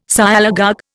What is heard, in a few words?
"Sialogogue", Indian